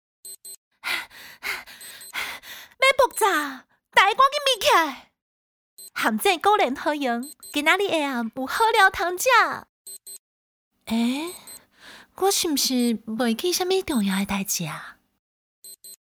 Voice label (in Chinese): 喪屍 女主角